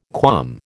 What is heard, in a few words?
"qualm", American